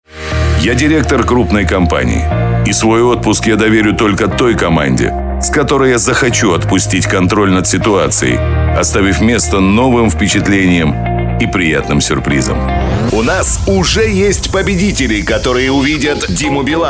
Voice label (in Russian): muzhskie golosa, diktory